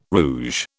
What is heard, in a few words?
"rouge", British